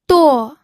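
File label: "duo", pinyin, fourth tone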